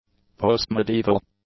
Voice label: "post-medieval", english; adjectives

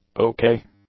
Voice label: "okay", english; adjectives

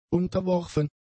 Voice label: "unterworfen", German; adjectives

